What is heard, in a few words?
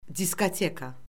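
"diskoteka", Azerbaijani; Orfoqrafiya Lüğəti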